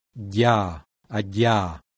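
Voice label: palatalized voiced alveolar plosive; IPA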